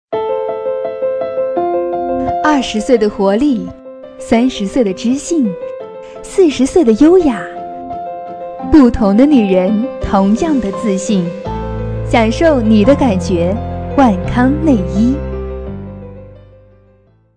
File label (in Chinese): 广告 内衣 时尚磁性, 女